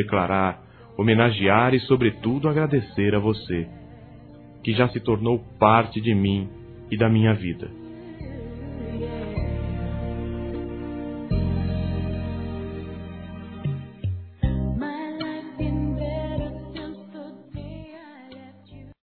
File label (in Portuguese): nossa velha amizade, Amizade Masc; Telemensagens